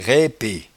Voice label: "raipejeu", patois jura

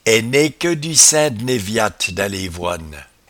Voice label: "enequedusaing", patois jura

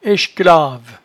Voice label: "echclave", patois jura

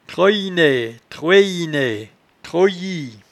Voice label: "troyenaie", patois jura

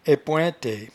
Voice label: "aippointaie", patois jura

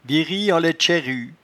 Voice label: "virieenlaitchairrue", patois jura

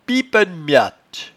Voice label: "piepeennemiatte", patois jura

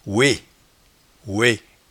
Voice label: "ouet", patois jura

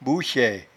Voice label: "bouchaie", patois jura

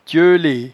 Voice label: "tieule", patois jura

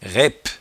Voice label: "raippe", patois jura

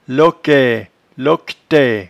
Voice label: "loquaie", patois jura

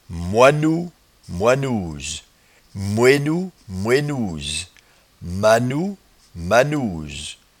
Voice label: "moinnou", patois jura

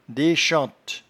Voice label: "dechente", patois jura

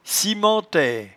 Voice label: "cimentaie", patois jura

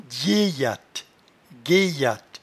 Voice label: "dyeyatte", patois jura

